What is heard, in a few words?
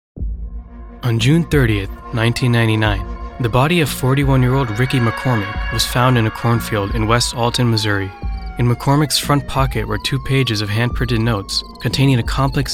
Documentary